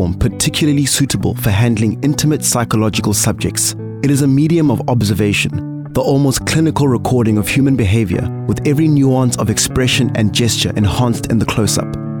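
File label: Film Explainer voice over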